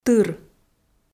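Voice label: "тыр", Komi, female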